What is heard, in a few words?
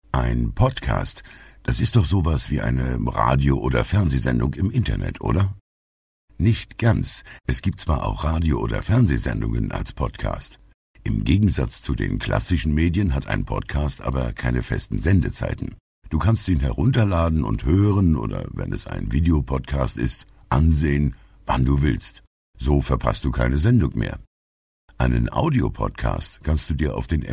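DE M narration, German, male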